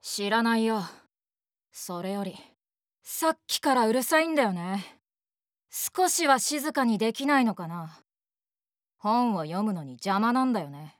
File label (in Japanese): 男